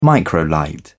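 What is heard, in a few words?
"microlight", British English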